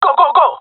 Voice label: request move, jungle male; player vo; Counter Strike Model Pack; CS:GO